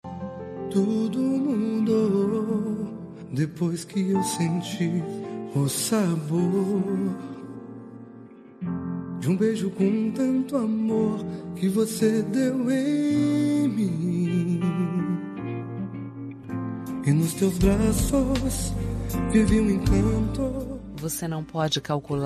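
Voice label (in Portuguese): aniversário namoro feminina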